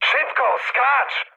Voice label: bailout mood med, speech pol; War Thunder